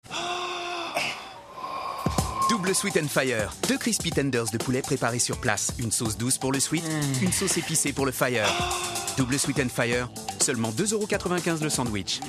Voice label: PUB TV VOIX JEUNE; KFC

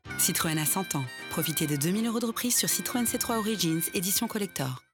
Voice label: FR pub tag; CITROEN

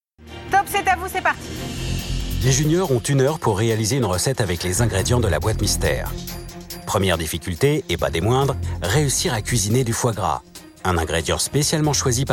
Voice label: Voix off; Master Chef Junior

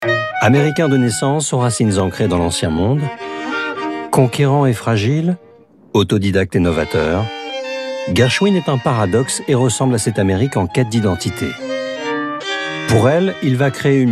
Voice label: Documentaire; Gershwin

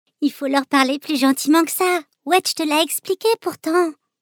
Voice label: Jeu video Voix petite fille

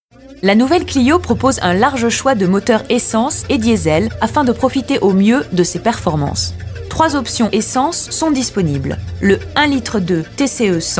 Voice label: Institutionnel; Clio

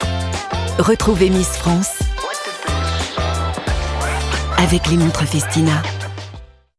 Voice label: comedienne voix off; festina

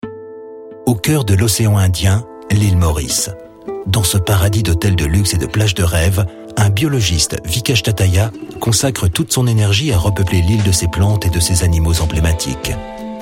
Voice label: reportage

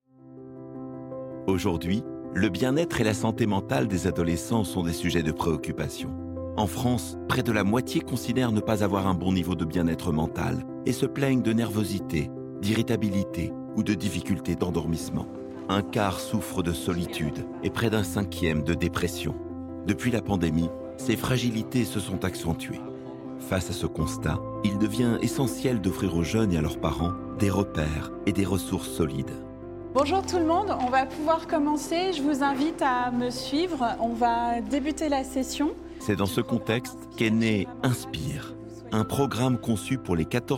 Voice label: FILM extrait voix; inspiR

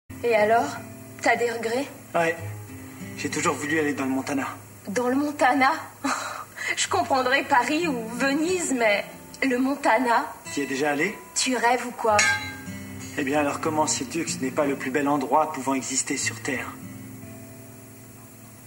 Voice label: DOUBLAGE

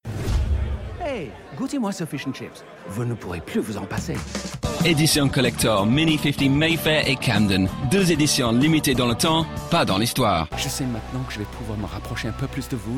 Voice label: medley voix en français

